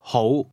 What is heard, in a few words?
"good", cantonese